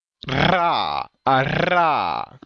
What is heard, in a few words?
Linguolabial trill